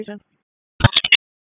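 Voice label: Raleigh Police North District Tactical, p25; wake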